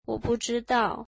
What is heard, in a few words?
I dont know, mandarin